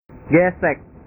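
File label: "gesek", Indonesian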